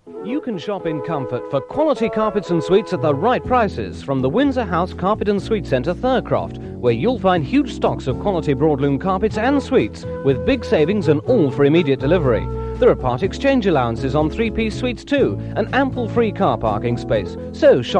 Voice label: Radio Advert; Windsor House Carpets; Radio Hallam